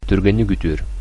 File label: get well soon, sakha; Түргэнник үтүөр